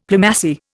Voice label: "Plumassier", Indian; Dictionary